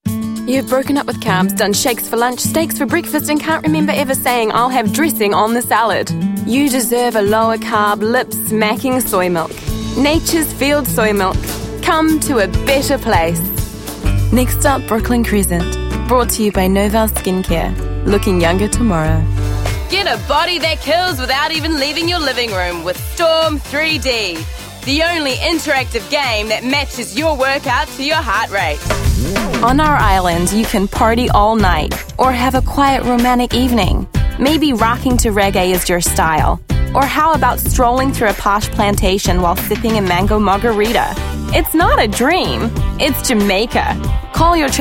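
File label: English New Zealand, female, commercial; voice demos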